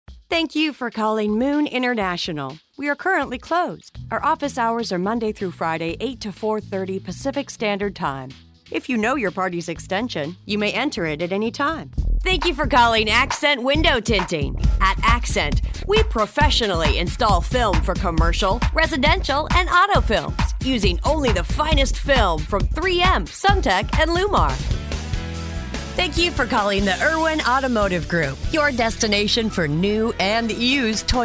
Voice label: Rock On Hold